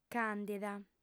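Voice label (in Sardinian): "càndida", female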